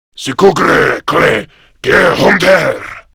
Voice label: Attack Grineer Male; Warframe; Battle Barks